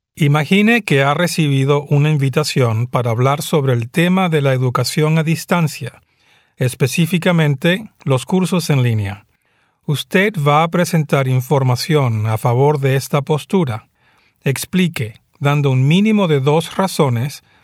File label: spanish audio speaking